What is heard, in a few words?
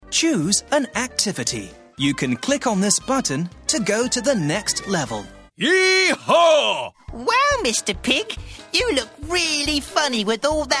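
British English, toys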